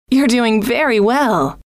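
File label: you Re Doing Very Well, female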